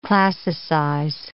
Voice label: "classicize", English